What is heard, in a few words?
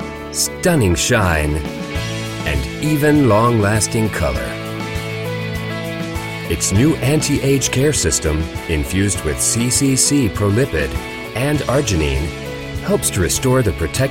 male american english hair comercial; ideasonora